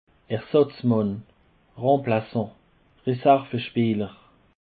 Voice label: "ersatzmann", Alsace